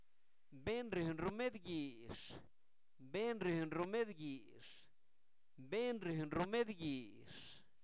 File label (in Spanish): curandero, Zapoteco, santo domingo albarradas; INALI; humano